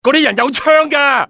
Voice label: "those men", male; XBOX; civ